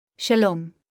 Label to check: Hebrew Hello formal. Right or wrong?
Right